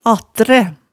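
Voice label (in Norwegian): "attræ", Hemsedal dialect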